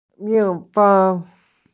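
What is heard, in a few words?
"bee", Mandarin; mi4feng1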